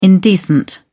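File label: "indecent", British English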